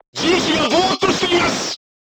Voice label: voice message gunlayer contused, crew tanks japanese